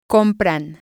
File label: "compran", spanish